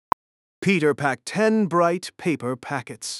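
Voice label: speaker male fast mid paper packets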